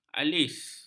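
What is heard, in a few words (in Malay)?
"alis", Malay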